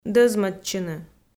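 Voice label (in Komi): "дӧзмӧдчыны", Komi, female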